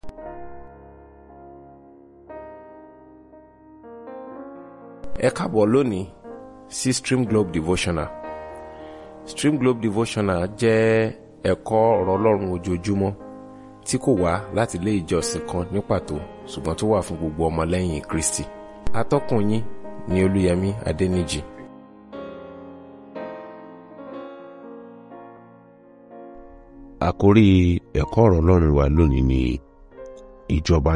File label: Devotional Audio Yoruba